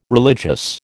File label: "religious", American